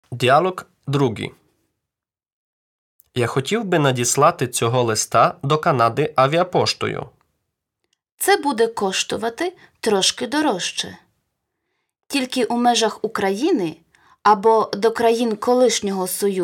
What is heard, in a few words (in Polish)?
Lekcja Dialog